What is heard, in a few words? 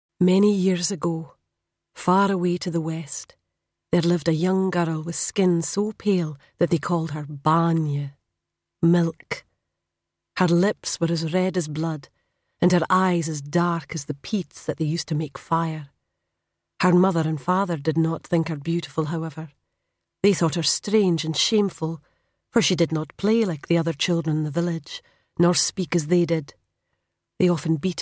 Scottish and Standard British Narration